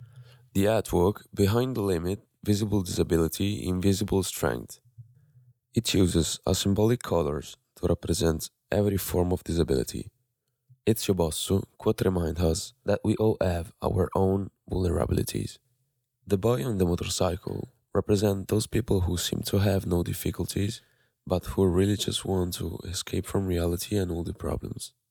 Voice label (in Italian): doppiaggio inglese; disabilita ed autorealizazione; Premio scuole secondarie superiori; Premio Eleanor; Oltre il limite: disabilità visibile, forza invisibile